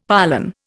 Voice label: "pollan", Indian; Dictionary